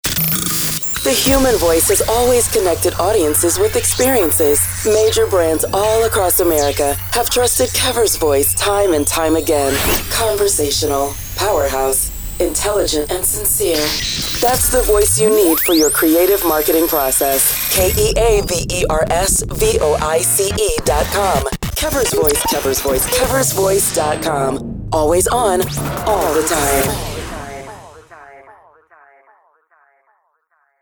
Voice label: Radio Imaging